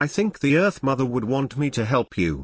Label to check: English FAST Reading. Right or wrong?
Wrong